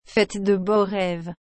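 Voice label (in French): "Faites de beaux rêves", French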